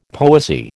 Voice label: "poesy", American